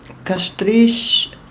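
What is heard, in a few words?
"Castrisch" Romansh Sursilvan; Кастриш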